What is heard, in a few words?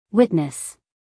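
"witness", American English female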